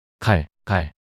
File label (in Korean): "칼갈" 낱소리 남자